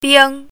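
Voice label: "bing1", Chinese pinyin, first tone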